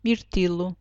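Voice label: "mirtilo", European Portuguese; fruta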